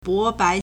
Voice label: "bo2bai2", Chinese

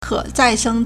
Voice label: "ke3zai4sheng1", Chinese

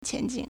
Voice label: "qian2 jing3", Chinese

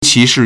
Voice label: "qi2shi4", Chinese

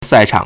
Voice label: "sai4 chang3", Chinese

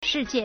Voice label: "shi4jie4", Chinese

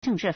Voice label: "zheng4zhi4", Chinese

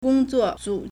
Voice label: "gong1 zuo4 zu2", Chinese

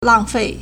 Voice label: "lang4fei4", Chinese

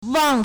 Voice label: "wang4", Chinese

